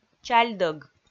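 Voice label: "чальдӧг", Komi, female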